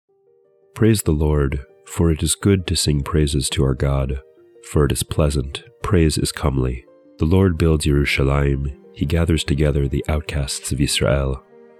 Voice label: poetry and prayer psalm english